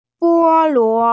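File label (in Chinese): "bo1luo2", Mandarin Chinese, female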